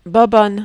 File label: "bobonne", French